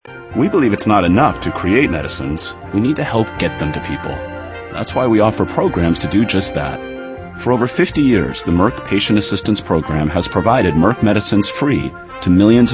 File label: male narration